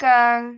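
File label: "goeng6", Cantonese; microsoftHiuMaan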